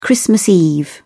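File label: "christmas eve", British English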